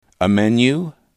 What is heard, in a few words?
"a menu", english